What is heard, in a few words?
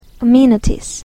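"amenities", English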